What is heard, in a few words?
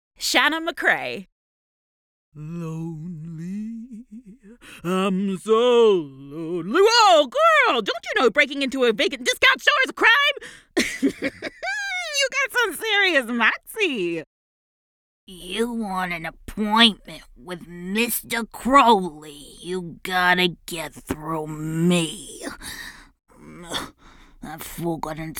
animation